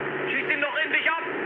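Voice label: German aircraft damaged mood med, speech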